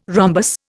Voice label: "rhombus", Indian